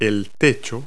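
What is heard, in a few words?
"roof", English word; Multi-Lingual Talking Picture Dictionary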